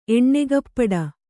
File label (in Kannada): "ಎಣ್ಣೆಗಪ್ಪಡ", Kannada